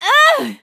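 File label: hit, French; Cookie Run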